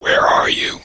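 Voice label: where are you whisper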